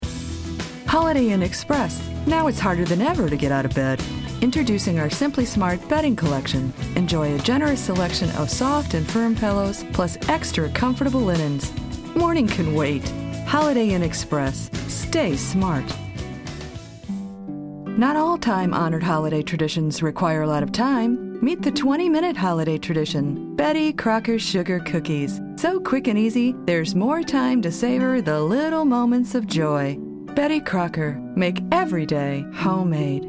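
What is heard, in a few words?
Commercials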